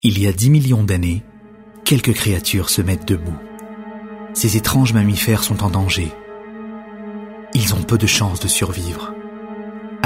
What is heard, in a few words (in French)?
Voix Explicative